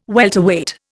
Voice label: "welterweight", Indian